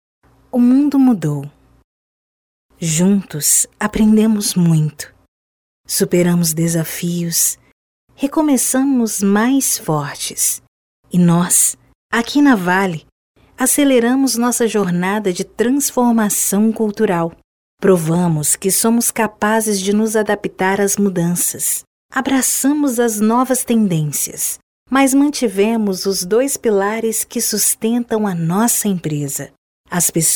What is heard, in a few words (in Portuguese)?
Institucional interpretada